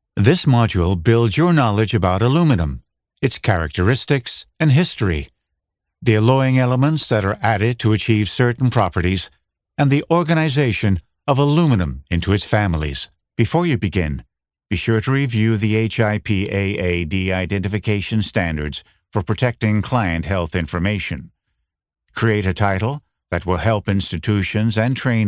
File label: E-Learning